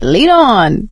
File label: lead on, survivor voice; source engine; left 4 dead 2; producer